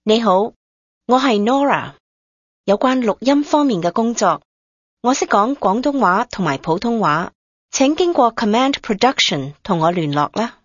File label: cantonese